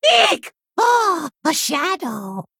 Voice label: Male Kobold Play